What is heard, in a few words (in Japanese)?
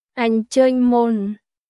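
クメール語（カンボジア語）の日常会話基本フレーズ; クメール語は、カンボジア王国の公用語で、独特のやわらかい響きが特徴です。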